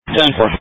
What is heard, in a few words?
Police Tactical Encrypted